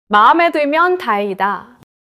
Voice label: neutral, monologue